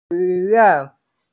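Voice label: "xuyuan", Mandarin Chinese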